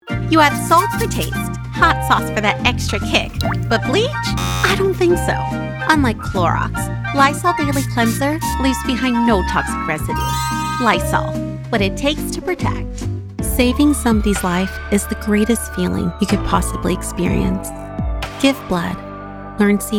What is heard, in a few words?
Commercial